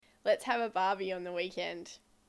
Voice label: Australian English, Let's have a barbie on the weekend.